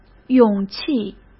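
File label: "勇气", Mandarin Chinese; hsk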